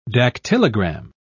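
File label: "dactylogram", British English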